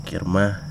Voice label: "big", wahgi; lae unitech